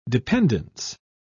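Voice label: "dependance", British English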